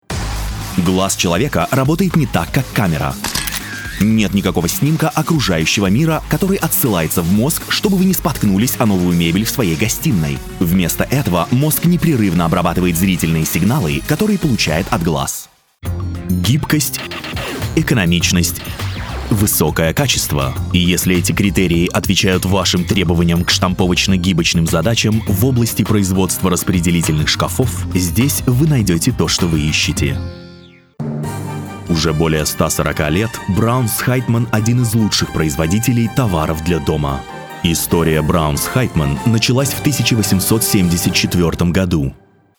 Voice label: Narration AudioBook Documentarie Educational Podcast eBook